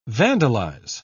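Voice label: "vandalise", British English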